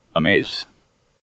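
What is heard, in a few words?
"amaze", US English; pronunciations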